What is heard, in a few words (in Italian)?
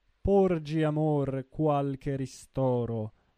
espressivo; Le nozze di Figaro